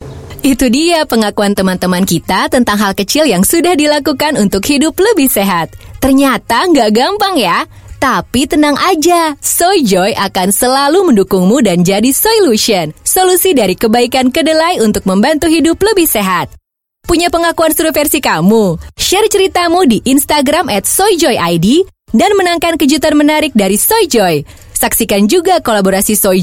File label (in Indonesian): Bahasa Indonesia Voice Sample